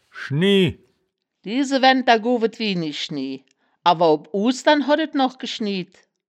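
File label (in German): "schnie", Wittlicher Wörterbuch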